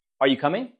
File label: Voice Acting es M